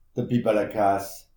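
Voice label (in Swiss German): "bibbelkaas", Alsatian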